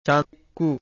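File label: "cakkhu", Pali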